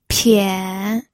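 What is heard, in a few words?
"pian", Mandarin Chinese, third tone; pinyin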